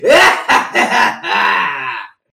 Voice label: evil villian